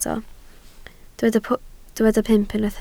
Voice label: "pump", Welsh